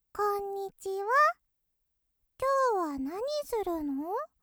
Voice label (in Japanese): ロリ こんにちは